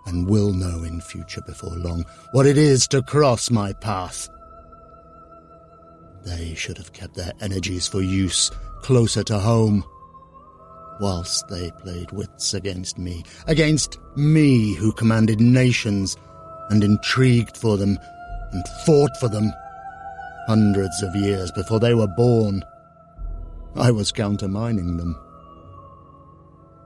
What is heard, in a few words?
voiceover horror and sound design